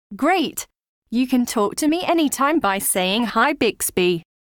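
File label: wake up succeed, British English; Bixby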